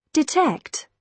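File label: "detect", British English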